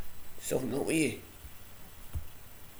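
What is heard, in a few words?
"sumfin", slang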